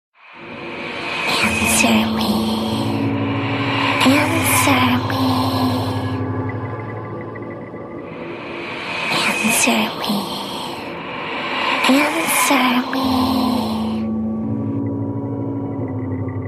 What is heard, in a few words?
Scary Girl Voice Ringtone